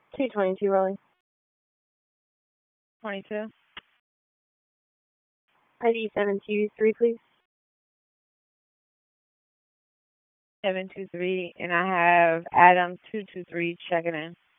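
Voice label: Raleigh Police Northeast District Dispatch; wake; p25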